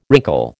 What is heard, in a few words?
"wrinkle", American